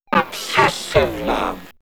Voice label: Man Machine Obssesive, robot vox; X-Static Goldmine